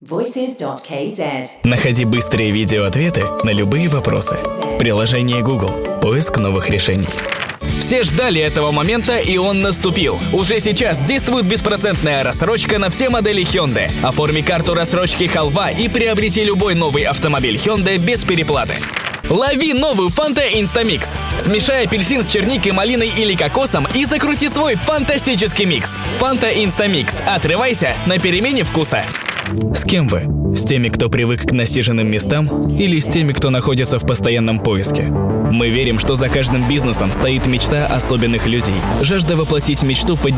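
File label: russian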